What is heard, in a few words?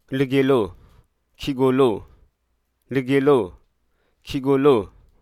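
Hruso Aka; clutch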